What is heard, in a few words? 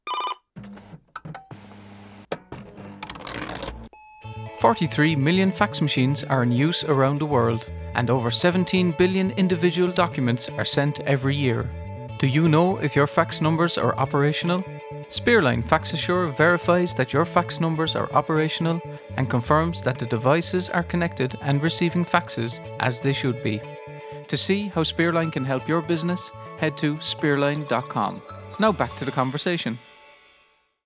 Podcast Advert; Spearline; Fax Assure